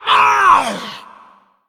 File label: Female Elemental Death